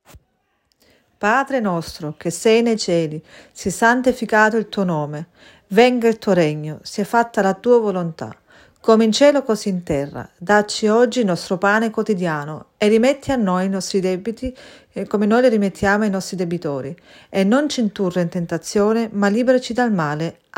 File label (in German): Vaterunser italienisch; Vater unser auf italienisch; Familienimpuls